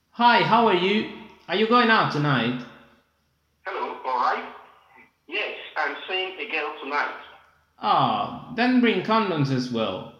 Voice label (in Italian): Inglese uomini